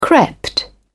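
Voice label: "crept", British English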